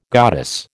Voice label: "goddess", American